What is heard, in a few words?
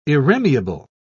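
"irremeable", British English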